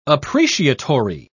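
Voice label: "appreciatory", British English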